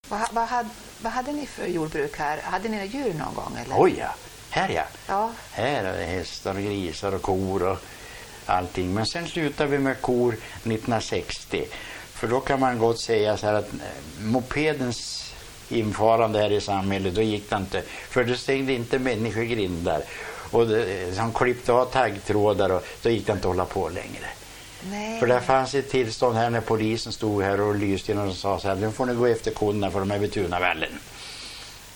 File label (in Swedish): Eskilstuna, dialekter; SDML